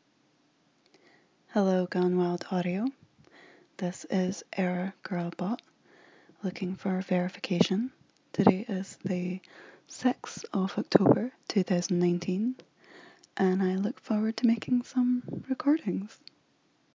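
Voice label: Verification, female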